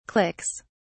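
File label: "clicks", British English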